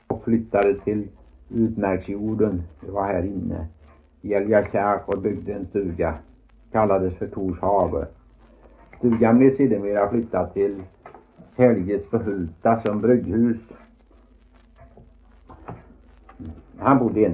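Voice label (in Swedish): Veddige Halland, Svenska sagor dialekter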